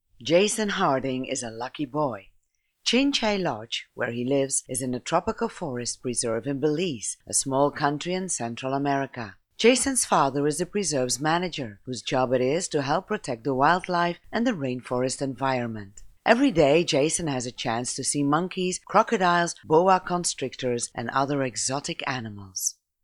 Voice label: documentary rainforest

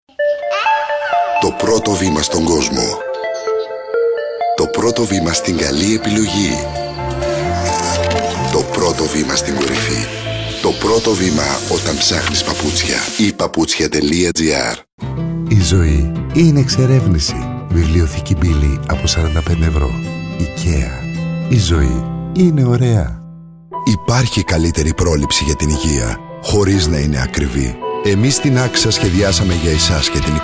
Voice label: Greek

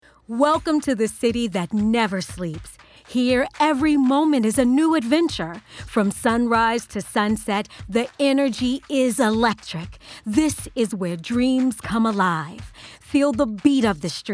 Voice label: Promo; American

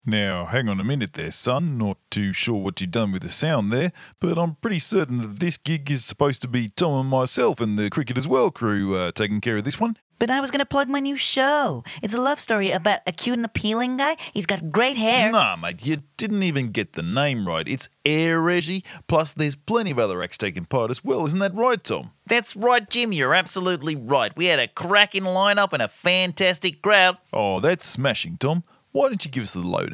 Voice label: commentary excerpt

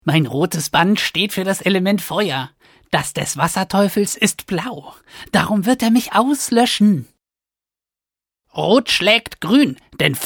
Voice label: Goblin de

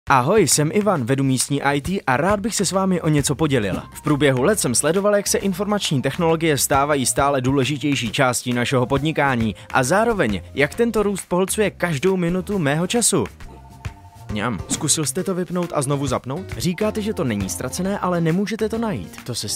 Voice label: CZ Ad; HP

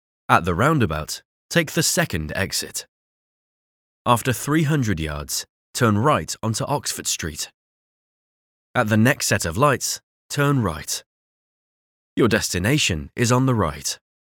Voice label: GPS Voice Assistant

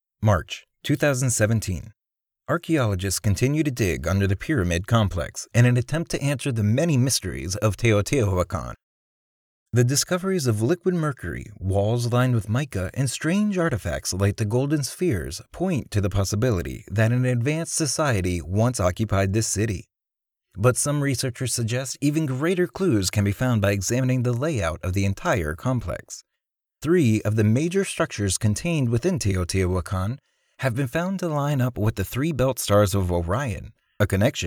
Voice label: Documentary

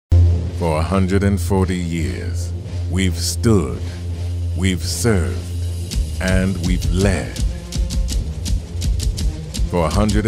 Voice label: webvoice NaturalSpeak; Texas A M

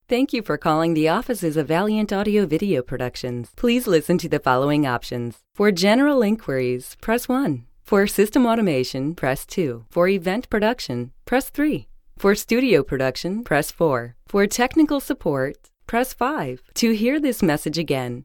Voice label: Telephony

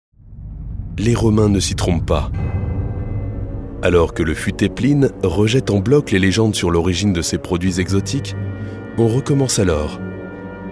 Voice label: DOCU

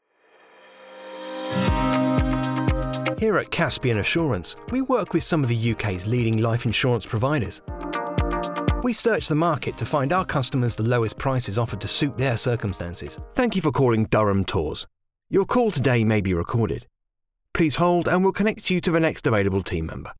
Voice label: IVR

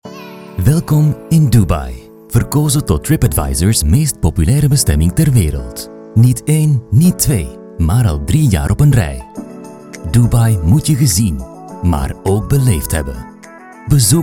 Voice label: welcome dubai flemish

